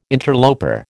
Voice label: "interloper", American